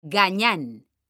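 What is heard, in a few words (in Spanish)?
"gañan", Spanish, female; mekanta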